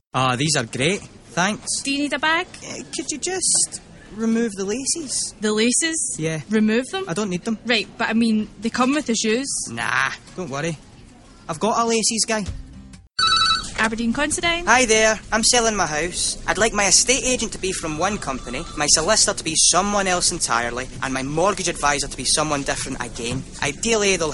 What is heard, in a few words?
Drama